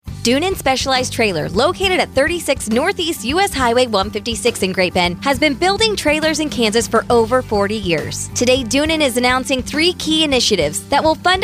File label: Radio Ad; Doonan; CHANGES ARE open house